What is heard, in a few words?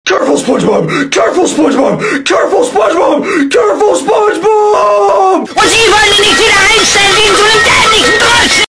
careful, german; spongebob